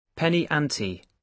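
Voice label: "penny ante", British English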